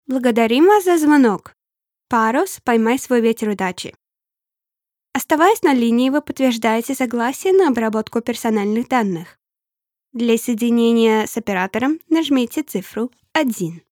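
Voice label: Russian Corporate; GoLocalise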